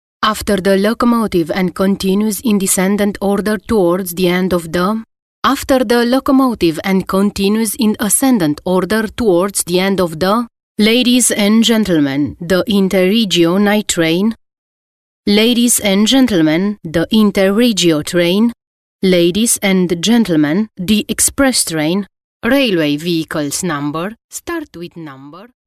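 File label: Romanian, female, english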